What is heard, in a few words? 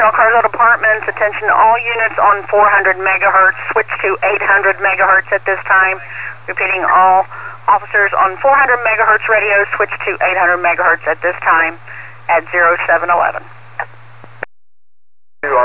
Cincy PD 800mhz